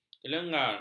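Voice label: "kelengar", Malay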